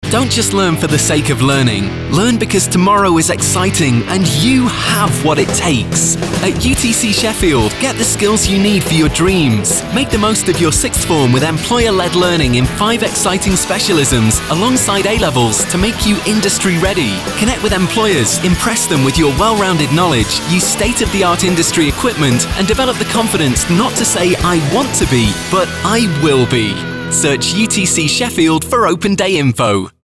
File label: voiceover college voice over for radio example